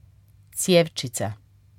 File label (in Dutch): cjevcica, kroatisch